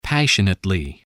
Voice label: "passionately", English, voice